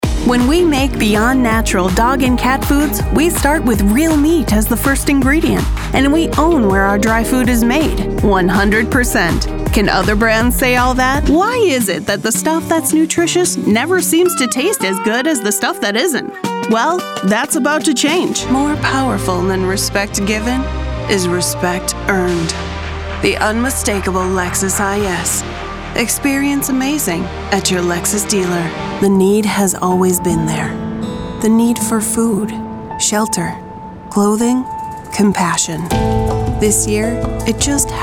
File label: Commercial Female